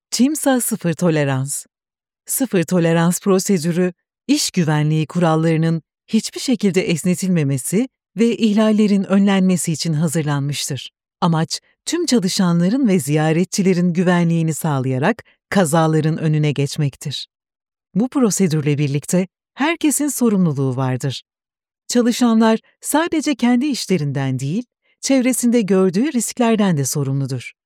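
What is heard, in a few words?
Turkish, female; Cimsa Sifir Tolerans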